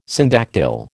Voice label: "syndactyl", American

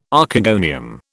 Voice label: "Archegonium", British

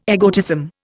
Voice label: "egotism", Indian

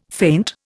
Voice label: "faint", Indian

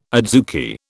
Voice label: "adzuki", British

